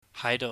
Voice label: "haidou", Cantonese

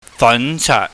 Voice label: "fanchaat", Cantonese

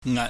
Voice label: "ngat", pingyam

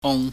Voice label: "ung", pingyam

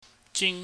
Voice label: "jing", chinese

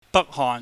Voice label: "bakhohn", cantonese

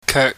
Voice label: "keuk", chinese

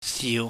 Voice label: "siu", Chinese

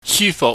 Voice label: "syufuhk", Cantonese

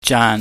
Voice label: "jaan", chinese

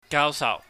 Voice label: "gaausauh", Cantonese

